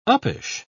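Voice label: "uppish", British English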